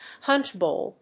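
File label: "punch bowl", English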